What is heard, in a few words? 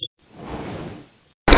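Voice Acting Spanish Female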